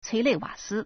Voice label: "cui1 lei4 wa3 si1", Chinese